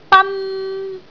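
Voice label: "ban1", Cantonese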